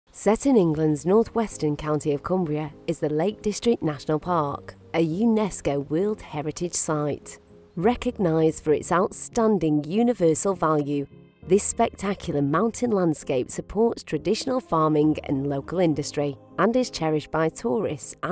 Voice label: female english sheffield accent corporate narration compilation; english northern accents